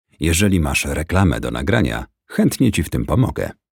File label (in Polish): lektor REKLAMA